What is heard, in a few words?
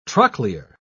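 "trochlear", British English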